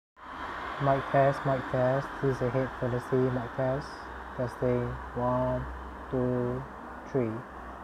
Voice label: Mee Audio M6 Noisy